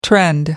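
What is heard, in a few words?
"trend", British English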